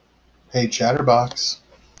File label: hey chatterbox, en-us